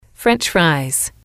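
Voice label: "french fries", English, female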